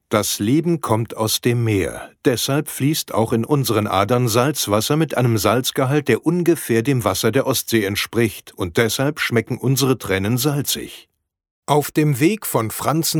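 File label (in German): Hörbuch wissenschaftlich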